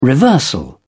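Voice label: "reversal", British English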